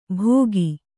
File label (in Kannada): "ಭೋಗಿ", Kannada